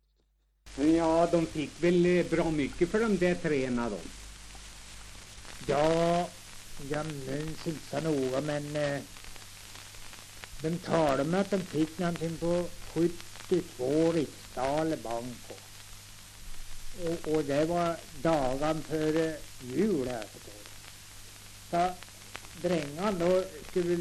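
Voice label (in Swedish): Tuna Medelpad, dialekter, svenska sagor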